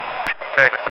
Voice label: short police radio chatte

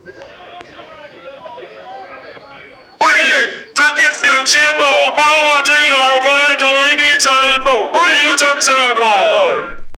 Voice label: announcer with booming v

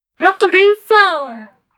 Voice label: girl saying nah fuck him

